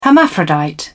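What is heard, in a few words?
"hermaphrodite", British English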